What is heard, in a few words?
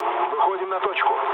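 near waypoint, mood low, Russian; War Thunder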